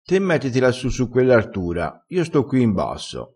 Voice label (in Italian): "artura", crespinese